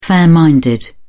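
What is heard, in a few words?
"fair-minded", British English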